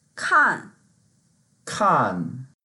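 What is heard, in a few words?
"kan4", Mandarin Chinese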